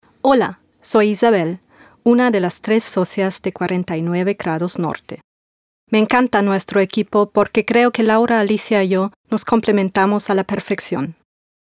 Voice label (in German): Vorstellung, ES